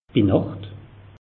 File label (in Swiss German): "binacht", alsace; meteo